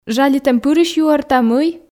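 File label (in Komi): жалитӧмпырысь юӧрта мый, Komi, female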